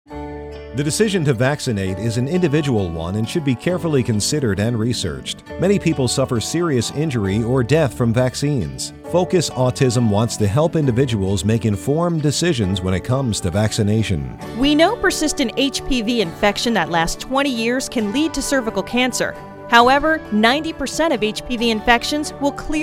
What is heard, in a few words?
radio ad; GARDASIL